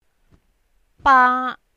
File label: "baa1", Cantonese